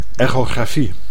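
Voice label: "echografie", Dutch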